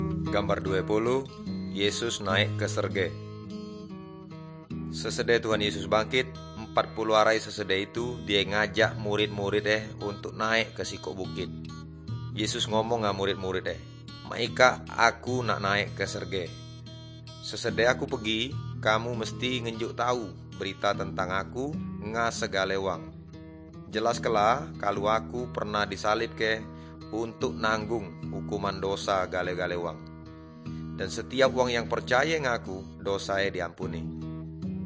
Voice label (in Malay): good news melayu tanjung raja